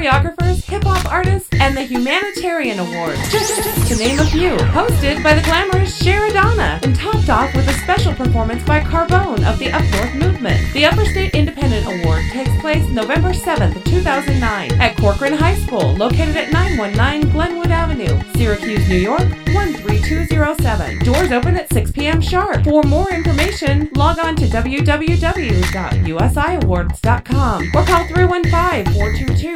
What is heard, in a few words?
radio commercial; Upper State Independent Awards Show 2009